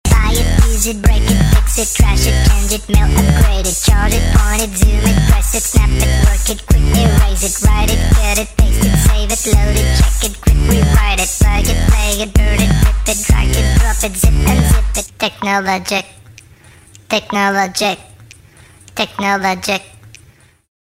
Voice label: tv ad; alfa romeo